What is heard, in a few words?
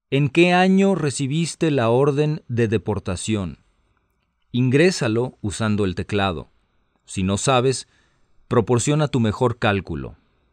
Spanish, hotline